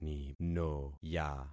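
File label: "ninoya", concatenated syllables; Affixing experiment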